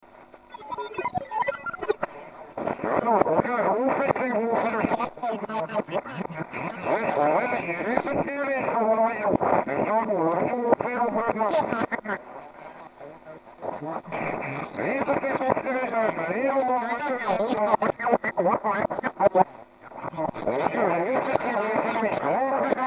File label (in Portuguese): voz radio passando efeito sonoro